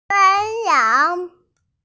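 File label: "shan1 yang2", Mandarin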